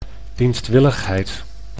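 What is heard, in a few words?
"dienstwilligheid", Dutch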